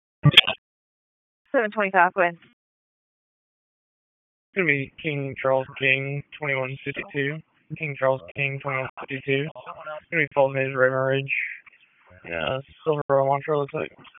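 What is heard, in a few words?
Sheriff's Office Dispatch 1, p25; wake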